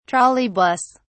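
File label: "trolley bus", British English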